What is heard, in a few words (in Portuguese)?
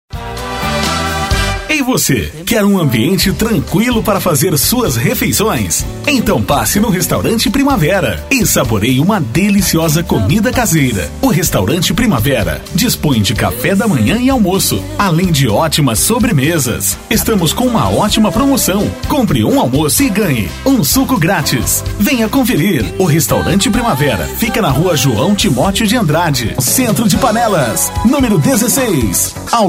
chamada de festa